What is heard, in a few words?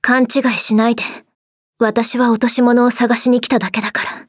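start, Japanese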